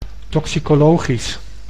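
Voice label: "toxicologisch", Dutch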